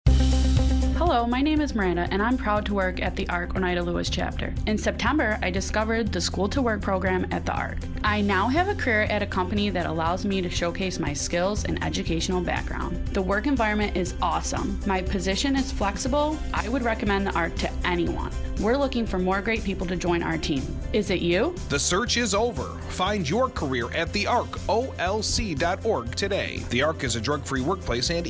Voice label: Radio Commercial